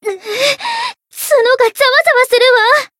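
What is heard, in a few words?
Voice encount fear, Japanese; tsukiha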